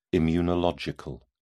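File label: "immunological", British English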